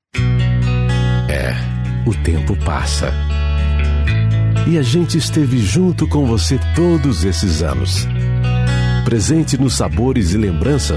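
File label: Brazilian Portuguese, male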